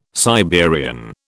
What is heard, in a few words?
"Siberian", British